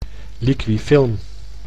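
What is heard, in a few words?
"liquifilm", Dutch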